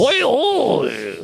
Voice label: voice, asian voices